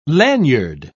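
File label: "laniard", British English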